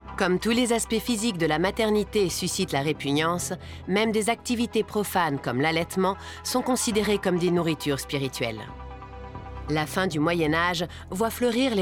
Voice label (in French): DOCU NARRATION; voix-off; ARTE; Le mythe de la mere parfaite